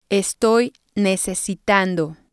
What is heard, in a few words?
"estoy necesitando", spanish